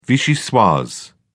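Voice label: "vichyssoise", British English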